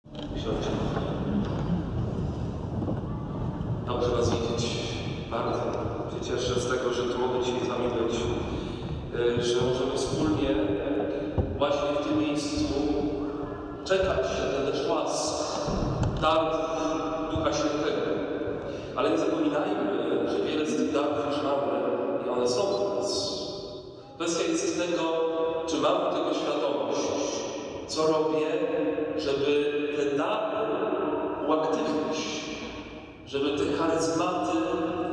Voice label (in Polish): Homilia; reverb reduction noisegate